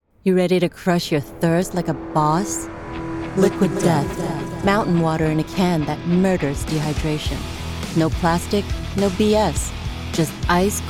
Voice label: Commercial; Hong Kong